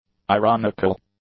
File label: "ironical", english; adjectives